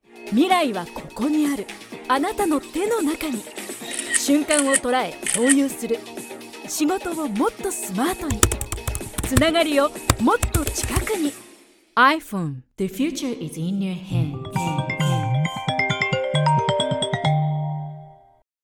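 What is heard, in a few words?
japanese promos, Femelle; Promotions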